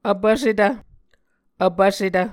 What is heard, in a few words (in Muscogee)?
"vpvsetv", muscogee